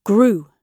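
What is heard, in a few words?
"grew", British English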